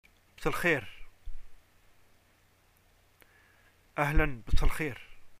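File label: Algerian greeting evening when meeting, arabic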